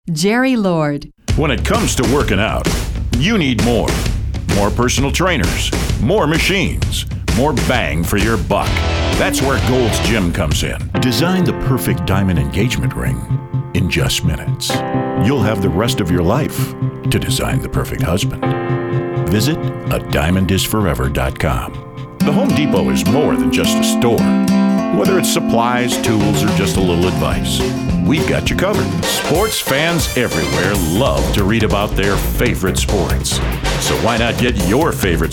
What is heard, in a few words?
Commercial